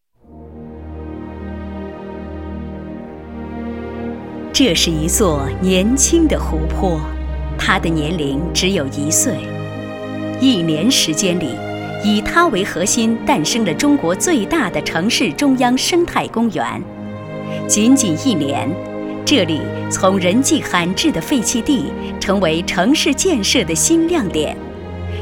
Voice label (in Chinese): 女 专题, 大气